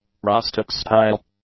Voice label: "rustic-style", English; adjectives